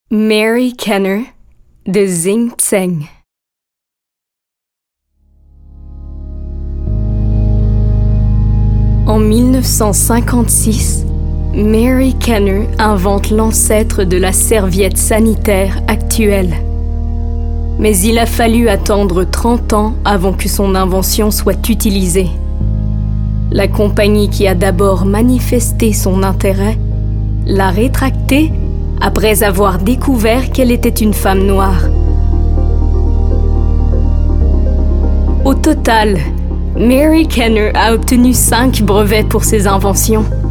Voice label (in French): Narration; Mary Kenner